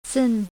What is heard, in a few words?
"xin", vietnamese